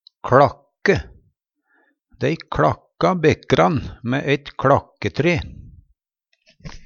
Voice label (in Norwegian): "kLakke", Numedalsmål; Dei kLakka bekaran mæ eit kLakketre.